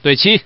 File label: Man